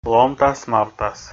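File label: "ломтас-мавтас", Komi, male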